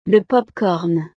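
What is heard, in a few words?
"le popcorn", French; snacks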